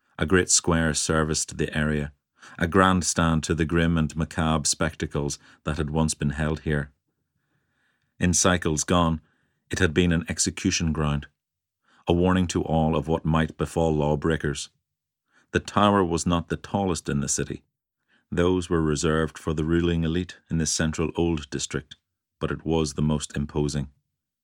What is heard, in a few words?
Audiobook